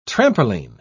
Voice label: "trampoline", pronounce

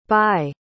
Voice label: "baigh", pronounce

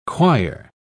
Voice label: "choir", pronounce

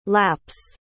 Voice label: "laps", pronounce

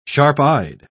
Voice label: "sharp-eyed", pronounce

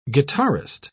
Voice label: "guitarist", pronounce

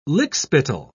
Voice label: "lickspittle", pronounce

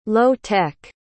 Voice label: "low-tech", pronounce

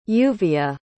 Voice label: "lluvia", pronounce

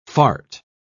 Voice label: "fart", pronounce

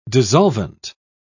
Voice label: "dissolvent", pronounce

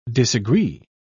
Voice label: "disagree", pronounce

